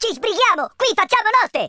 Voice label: hurry, Italian